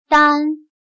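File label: "单" Chinese, Dān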